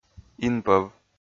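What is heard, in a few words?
"инпӧв", Komi, male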